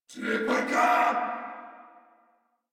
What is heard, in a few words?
Reload Corpus Venus Male; Warframe; Battle Barks